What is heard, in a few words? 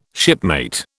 "shipmate", British